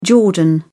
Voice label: "Jordan", spoken